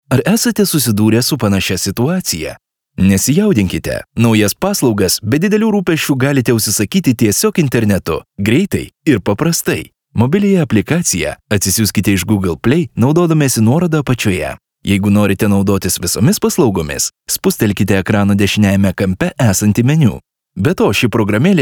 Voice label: male lithuanian explainer; voices; devine voices

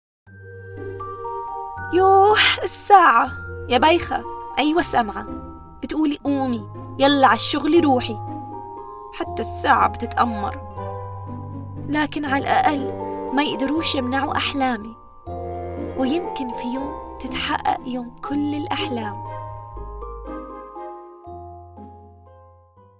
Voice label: female arabic egyptian arabic character animation

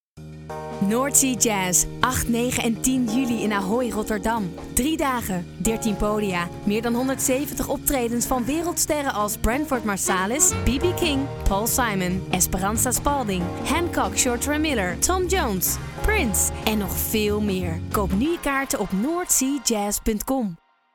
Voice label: female dutch radio spot

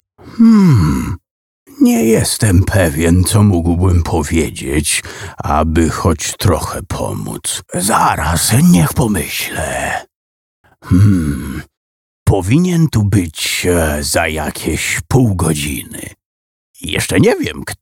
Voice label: male polish dubbing characters

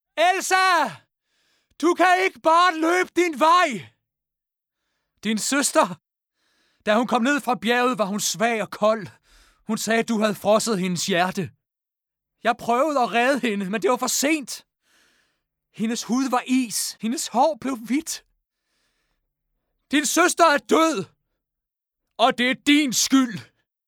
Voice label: voices male danish scene animation; disney frozen prince hans scene ii; devine voices

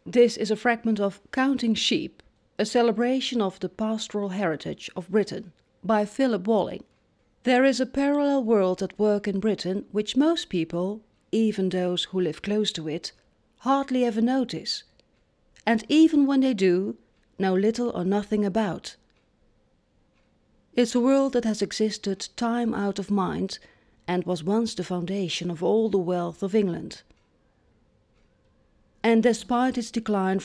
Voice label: female dutch english with dutch accent narration

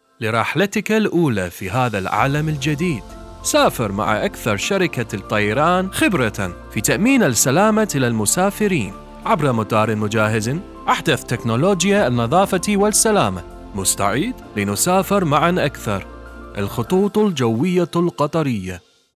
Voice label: male arabic and english arabic commercial; qatar airways

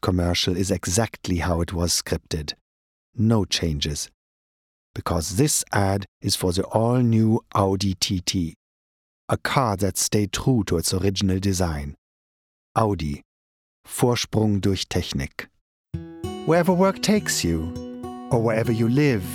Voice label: male, English with German accent

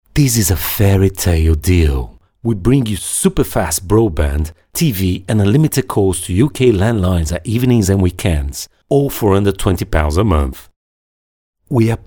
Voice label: male english with brazilian portuguese accent

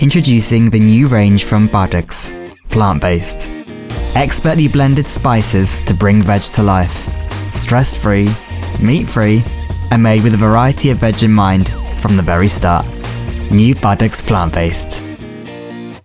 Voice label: male english commercial; patak s

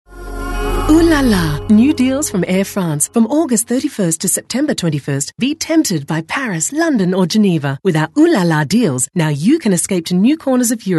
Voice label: female English Australian commercial compilation

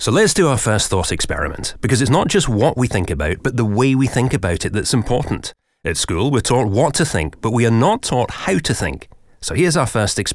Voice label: male english scottish audiobook narration

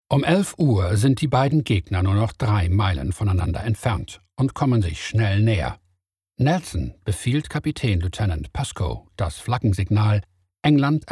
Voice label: male german audio tour guide; hms victory portsmouth; devine voices

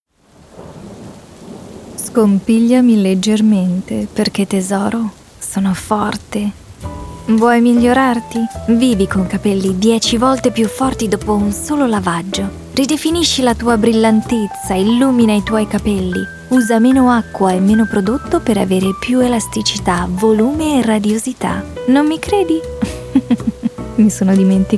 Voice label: female, Italian commercial; herbal essences

